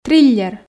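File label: "триллер", Komi, female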